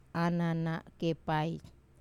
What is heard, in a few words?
"anana kepai", large black ant, Wamesa